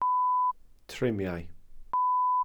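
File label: "trumiau", Welsh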